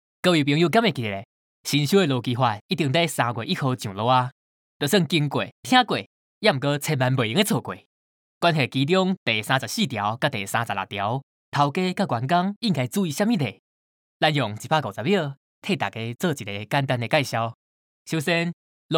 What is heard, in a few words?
Commercial